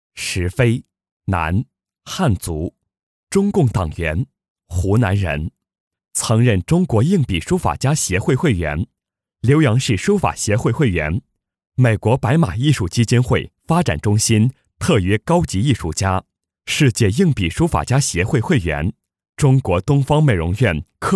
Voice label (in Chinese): 男专题人物介绍